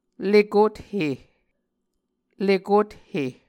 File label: "lekothe", Muscogee